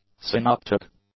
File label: "synoptic", English; adjectives